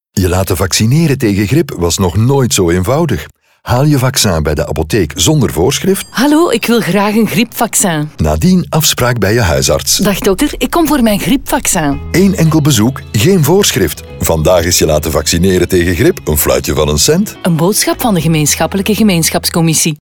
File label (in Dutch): radiospot vaccinatie griep; Grippe